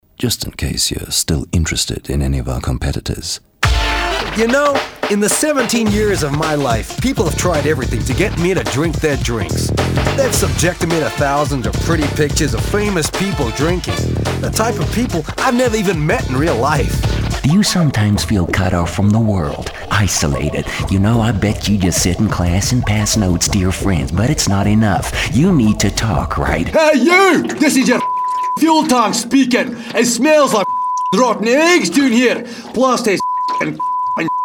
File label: male English multiple accents compilation